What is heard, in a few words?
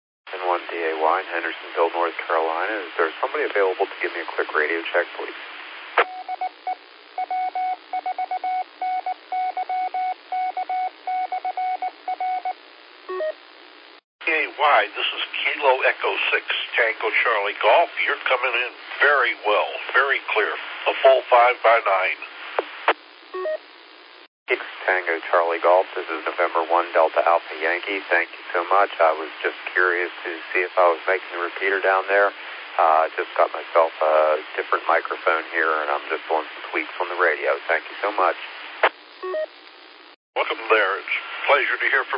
qso rec simplex logic